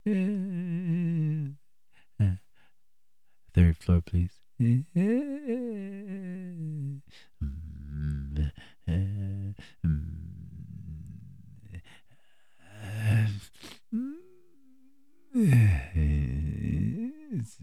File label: elevator guy